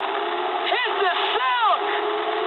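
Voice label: English bailout mood high; speech; War Thunder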